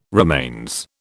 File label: "remains", British